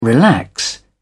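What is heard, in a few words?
"relax", British English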